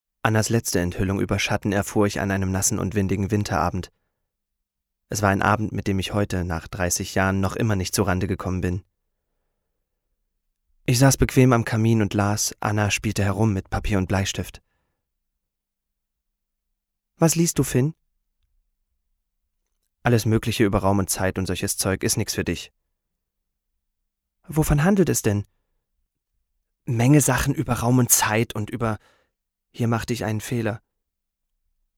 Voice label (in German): hoerbuch